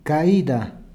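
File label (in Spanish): "caida", Spanish, Peru, Cuzco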